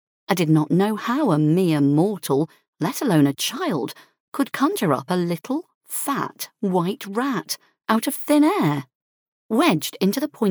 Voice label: female English multiple English accents audiobook compilation